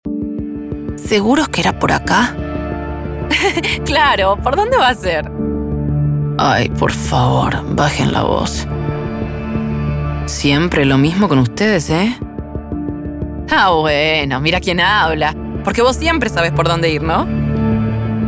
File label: Versatilidad Personajes Versatile Characters